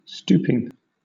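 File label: "stooping", British English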